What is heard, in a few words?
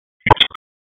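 Raleigh Police North District Tactical, p25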